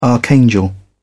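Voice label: "archangel", British English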